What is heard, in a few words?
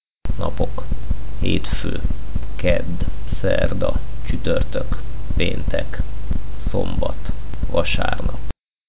hungarian